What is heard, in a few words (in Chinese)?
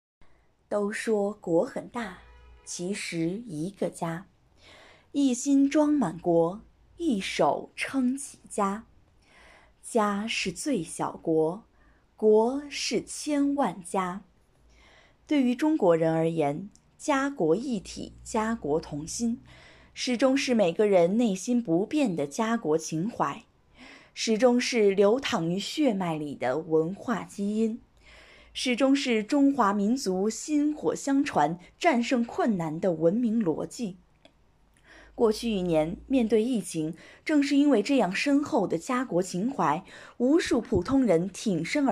专题朗诵